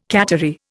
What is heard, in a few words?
"cattery", Indian